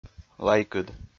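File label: "лайкыд", Komi, male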